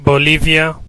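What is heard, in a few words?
bolivia, Hebrew; gcompris-data; geography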